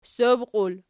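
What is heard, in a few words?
"ssob" plural, Archi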